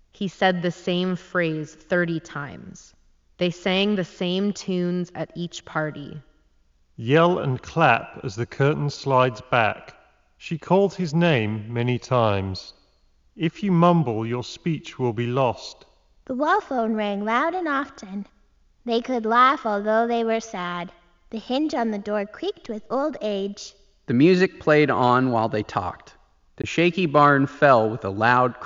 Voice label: JBL Quantum 350 Wireless Reverb microphone sample